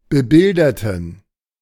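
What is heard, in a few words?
"bebilderten", German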